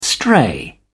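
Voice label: "stray", English, British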